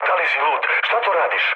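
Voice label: Serbian player killed ally mood low; War Thunder; speech srb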